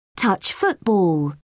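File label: "touch football", English, British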